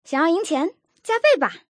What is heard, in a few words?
woman double